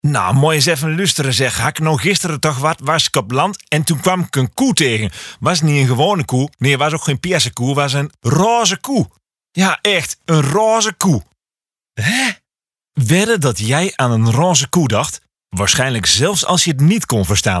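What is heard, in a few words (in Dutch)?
voice-over dialect Achterhoeks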